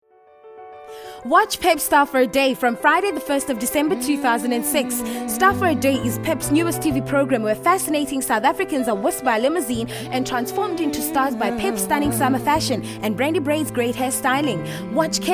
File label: hard sell